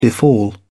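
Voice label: "befall", en, uk British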